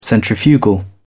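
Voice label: "centrifugal", British English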